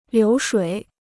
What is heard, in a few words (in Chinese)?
"流水", Chinese; liú shuǐ; running water; (business) turnover